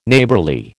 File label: "Neighbourly", American